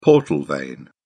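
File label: "portal vein", British English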